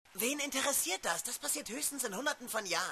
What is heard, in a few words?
German